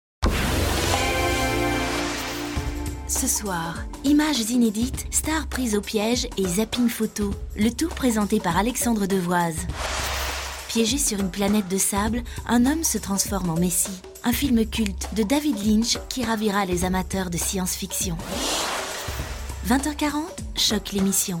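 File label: FR F commercial, French, female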